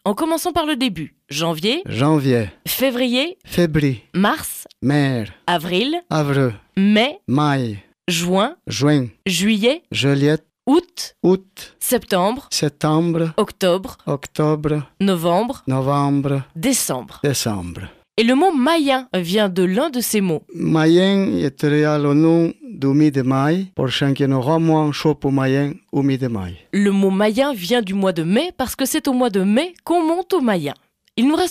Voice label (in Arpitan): dire en patois